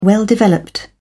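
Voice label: "well developed", British English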